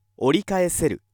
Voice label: male